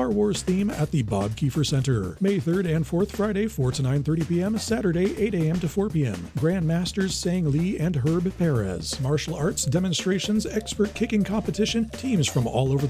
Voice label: Radio Ad; KKNX; KEED